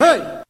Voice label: "hey", voice